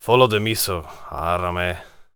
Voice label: warrior conversation emotion neutral

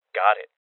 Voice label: Voices Confirmation Male Young

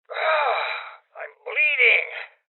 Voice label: Voices Injury Male Old; Rebel Cops

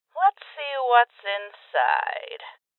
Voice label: Voices Open Safe Female; rebel cops 2019 gamerip

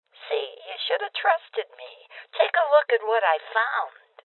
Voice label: Voices Open Safe Female Old; rebel cops 2019

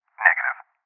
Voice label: Voices Refuse Male

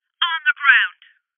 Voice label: Order To Surrender Female, Voices

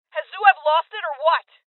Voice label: Female; rebel cops 2019

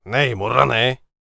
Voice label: warrior conversation emotion question

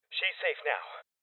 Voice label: Cop Evacuated Male Young; Rebel Cops

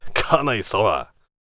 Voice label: VO warrior conversation emotion joking

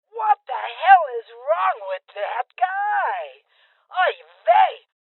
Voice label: Female Old

